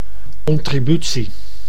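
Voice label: "contributie", Dutch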